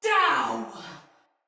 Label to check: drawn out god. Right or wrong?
Wrong